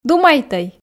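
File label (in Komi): "думайтӧй", Komi, female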